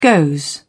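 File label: "goes", British English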